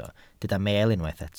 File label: "meel", Welsh